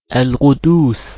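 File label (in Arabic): "القدوس", Arabic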